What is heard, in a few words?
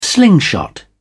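"slingshot", British English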